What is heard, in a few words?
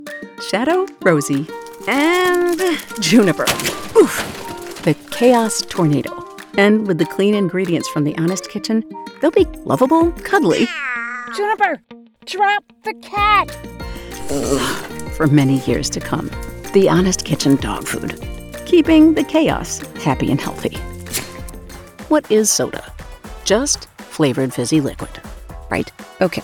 commericial